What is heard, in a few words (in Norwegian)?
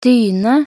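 "dyne", Norwegian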